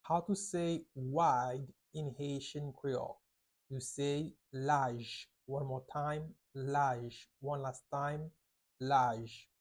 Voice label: "Laj" Haitian Creole pronunciation by a native Haitian tutor; How to say Wide in Haitian Creole